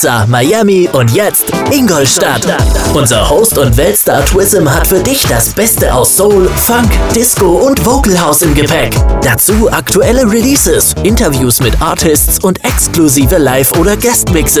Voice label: german radio imaging